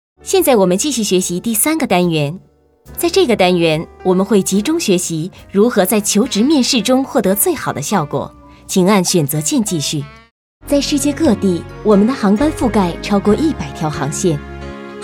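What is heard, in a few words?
Mandarin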